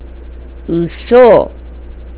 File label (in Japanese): "uso", nagoyaben